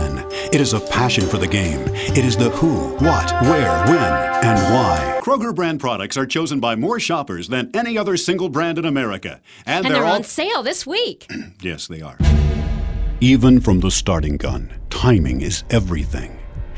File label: commercial